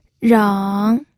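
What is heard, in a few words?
"reng", Mandarin Chinese, third tone; pinyin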